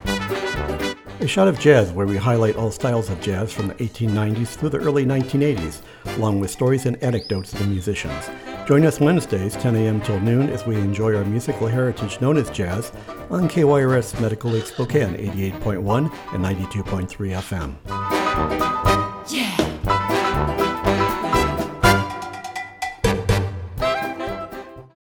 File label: PROMO; A Shot Of Jazz Hot Honey